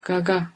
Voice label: "gaga", Turkish

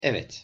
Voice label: "evet", Turkish

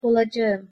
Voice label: "olacagim", Turkish

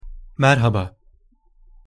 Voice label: "merhaba", Turkish

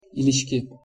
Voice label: "iliski", Turkish; alphabet

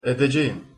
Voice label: "edecegim", Turkish

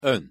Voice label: "on", Turkish

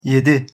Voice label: "yedi", Turkish

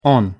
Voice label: "on", Turkish; alphabet

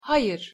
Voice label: "hayir", Turkish

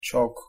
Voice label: "cok", Turkish

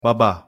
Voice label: "baba", Turkish

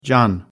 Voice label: "can", Turkish

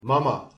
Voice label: "mama", Turkish

